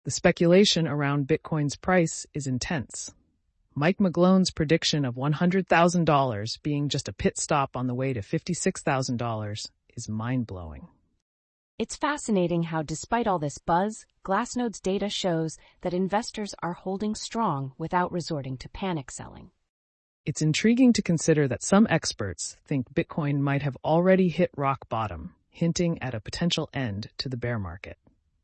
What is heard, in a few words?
podcast